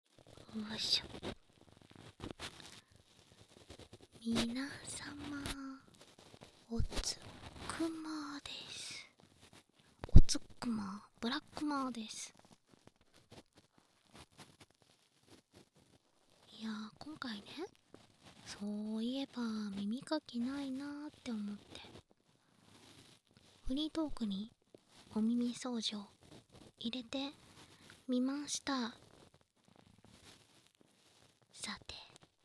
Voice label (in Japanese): 耳掃除 フリートーク; 奴隷に堕ちた貴方の運命